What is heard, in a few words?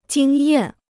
"经验", Chinese